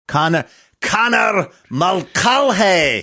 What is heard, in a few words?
bad Irish accent